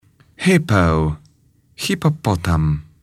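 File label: "hippo", English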